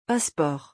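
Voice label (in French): "passeport", French; vocabulaire; le lexique des vacances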